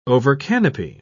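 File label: "overcanopy", British English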